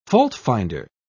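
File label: "faultfinder", British English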